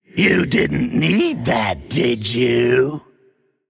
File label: Male Undead Play